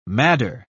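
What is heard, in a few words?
"madder", British English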